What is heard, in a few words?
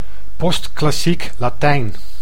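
"post-klassiek Latijn", Dutch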